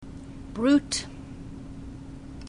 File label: "brut", glossary